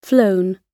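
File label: "flown", British English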